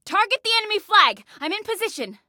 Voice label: Target Flag, Female

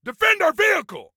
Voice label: Defend Vehicle, Soldier Male; Soldier Male 03

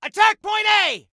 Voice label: attack, male; T2 Male 03

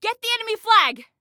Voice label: Attack Flag, Female

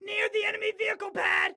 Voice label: Warn Enemy Near Enemy Vehicle Pad, Excited Guy

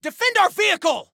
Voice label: Defend Vehicle, Soldier Male; Soldier Male 01

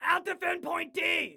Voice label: ILL DEFEND, Excited Guy

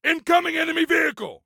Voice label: Warn Vehicle, Soldier Male; Soldier Male 03

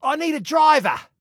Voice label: Need Driver, Male

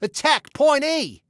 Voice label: ATTACK, Male

